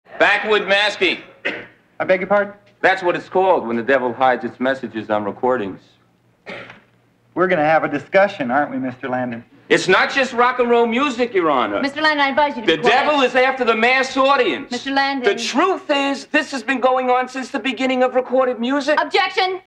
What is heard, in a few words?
backward masking; night court